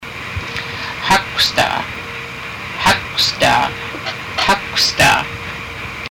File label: hurry up lets go, haida; Háakwsdaa; Hurry up or let's go